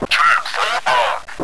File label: fallback, radio, zombie male